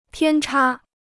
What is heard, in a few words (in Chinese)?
"偏差", Chinese